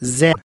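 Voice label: "zen", American English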